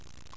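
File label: "hua", Chinese, fourth tone; Chinese Surnames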